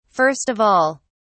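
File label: "first of all", British English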